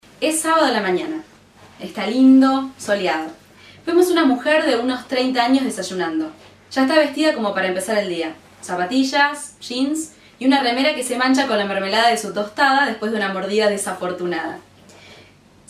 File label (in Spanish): Mujeres; Casting Impulse